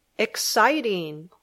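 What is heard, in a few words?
"exciting", English; palabra del dia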